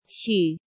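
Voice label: "Xǔ", Chinese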